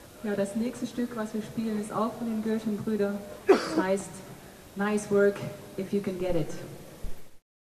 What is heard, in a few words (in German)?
Ansage